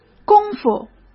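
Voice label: "工夫", Mandarin Chinese; chinese-flashcards; hsk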